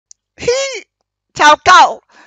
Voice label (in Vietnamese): am thanh meme hi chao cau pewpew